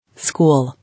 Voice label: "School", English